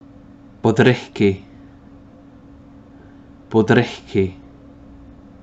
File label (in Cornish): "Bodrighky", Place Names Pronunciation